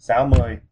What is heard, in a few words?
sixty vietnamese